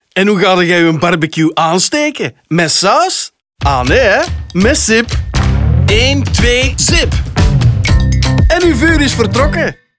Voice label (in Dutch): RADIO VL; 10s